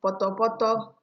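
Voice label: "potopoto", Nigerian Pidgin, female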